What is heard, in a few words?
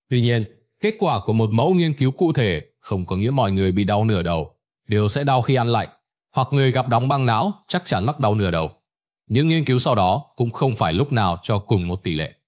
narration; Vi Sao An Kem Lanh Khien Dau Ban Dau Buot; StickerMan